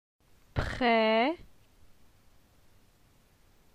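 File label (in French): "Pre", French; phonetique; la syllabe e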